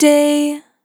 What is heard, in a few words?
"Short Day", vocal samples; Words